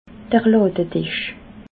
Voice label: "ladedisch", alsace

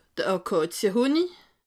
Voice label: "akazie", alsace

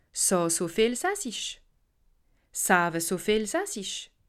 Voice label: "saa", alsace

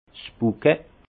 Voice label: "bukett", Alsace; vin; bouquet

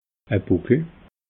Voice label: "buckel", alsace

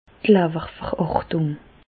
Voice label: "lawwerverhartung", Bas Rhin; medecine; cirrhose

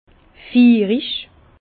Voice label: "fiirig", Bas Rhin; généreux; vin

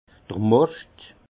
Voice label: "moscht", alsace

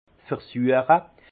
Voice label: "versueche", alsace; vin; goûter déguster

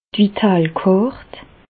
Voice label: "vitalekart", Bas Rhin; medecine; carte vitale

